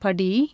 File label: "step", Tamil consonants; JIPA